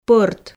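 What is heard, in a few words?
"пӧрт", Komi, female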